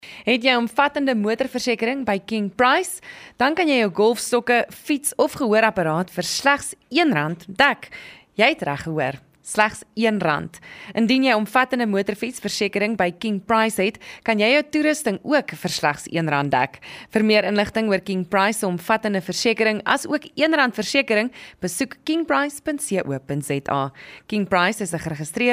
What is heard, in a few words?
PROFESSIONAL